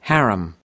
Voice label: "harem", us